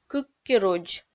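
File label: "кыккирудз", Komi-Zyrian, female; kpv audio dict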